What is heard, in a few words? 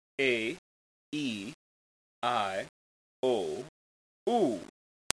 ge vowels, german